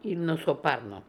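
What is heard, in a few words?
"Ilnosoparno", pronunciations; Fourth Way; Beelzebub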